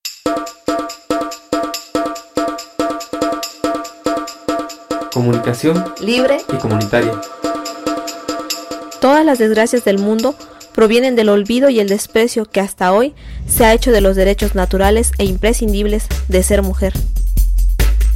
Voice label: promo mujer derechos

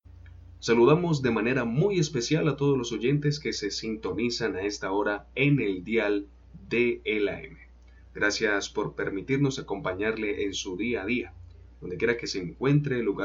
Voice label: locucion

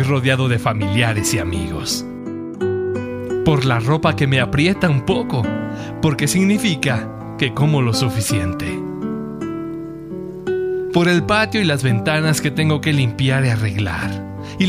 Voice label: locutor emotivo gracias por

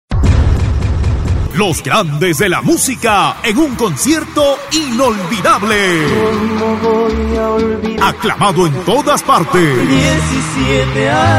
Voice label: spot publicitario radio; antena sur

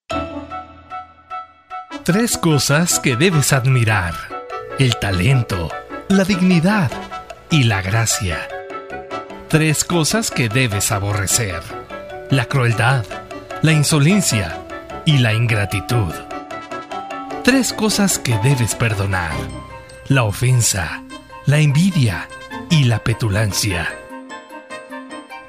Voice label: locutor emotivo; tres cosas

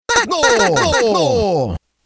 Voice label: cuña; tecno tec tecno

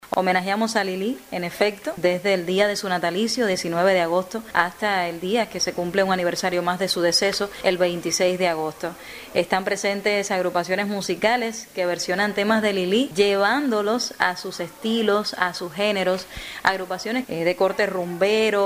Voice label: locutora

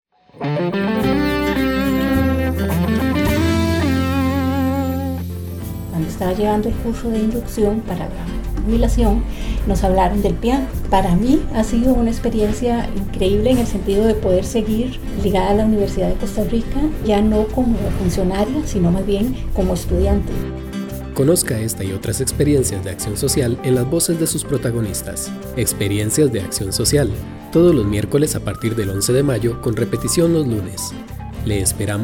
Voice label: cuña informativa

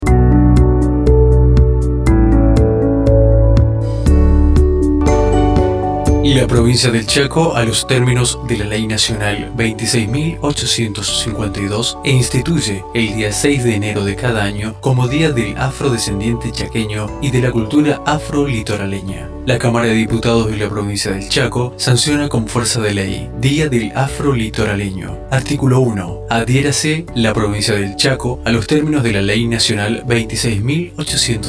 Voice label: spot institucional; 6 de enero dia del afrochaqueno

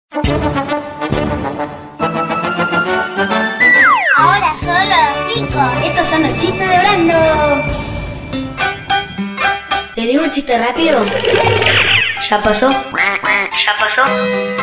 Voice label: chistes chiste rapido

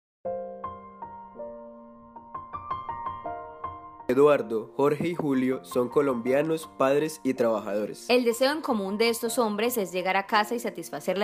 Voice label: spot storytelling

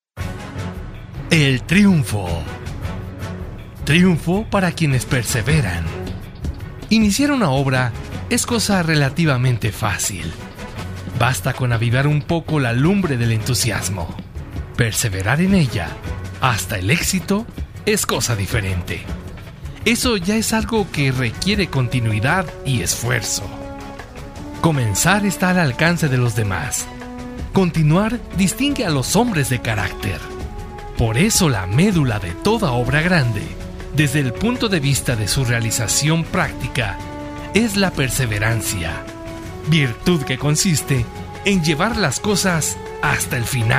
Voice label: locutor emotivo; el triunfo

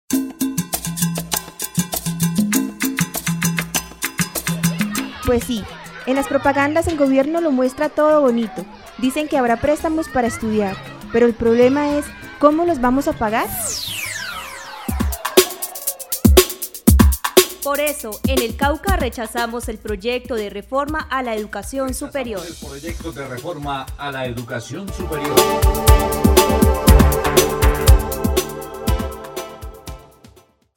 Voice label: cuña

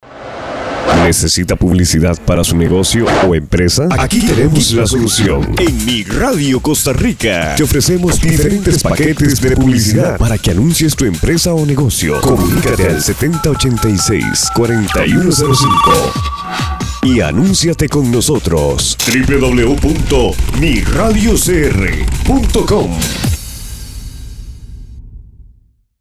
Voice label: promo; anunciate

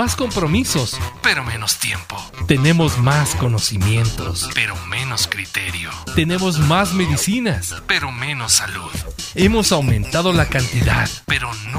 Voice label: locutor emotivo se feliz disfruta el momento

